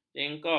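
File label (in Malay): "jengkol", Malay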